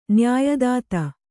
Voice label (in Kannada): "ನ್ಯಾಯದಾತ", Kannada